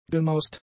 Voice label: "gemaust", German; adjectives